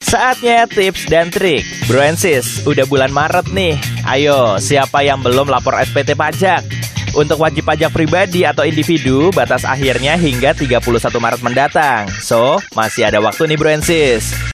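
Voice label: Bahasa Indonesia TV Magazine; Popnews KompasTV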